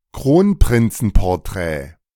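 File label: "Kronprinzenporträt", German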